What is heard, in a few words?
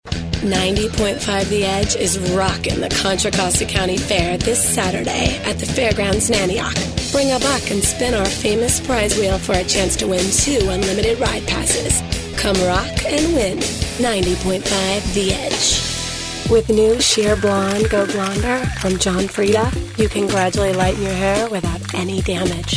female voice; voice talent; television and radio commercials, industrials, cd-roms, cartoon